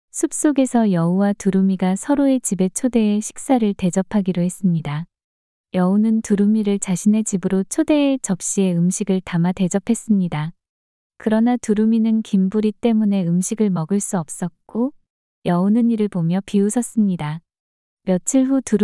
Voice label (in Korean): 이야기 여자; 여우와 두루미